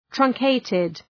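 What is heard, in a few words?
"truncated", English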